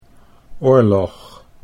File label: "oorlog", Dutch